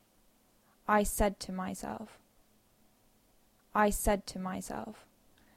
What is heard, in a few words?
Dictation; phonology